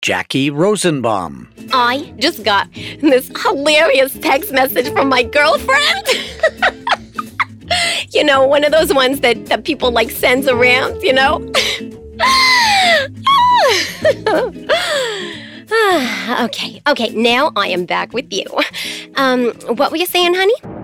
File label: Female Animation Voices